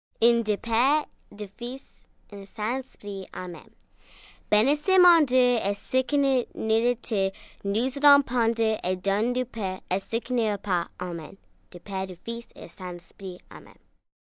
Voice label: French Grace, Prayers, 1 girl, 9 yrs, French - Grace before a meal, human voice, kid, child; speak, talk; Talking